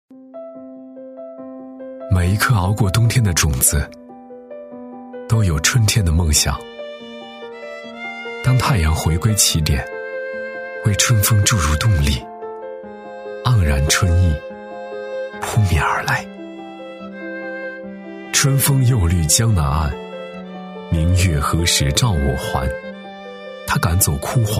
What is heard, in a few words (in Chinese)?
男 宣传片旁白 年轻自然文艺; 大气主流; 年轻时尚; 宣传片旁白《春分 旁白》